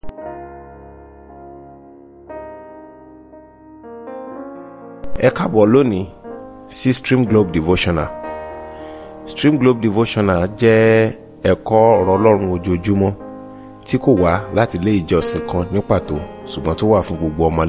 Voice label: Devotional Audio Yoruba